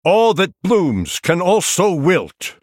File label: male night elf threaten